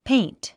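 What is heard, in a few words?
"paint", English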